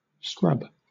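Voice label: "scrub", British English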